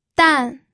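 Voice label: "dan", pinyin, fourth tone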